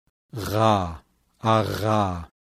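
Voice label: voiced uvular fricative; IPA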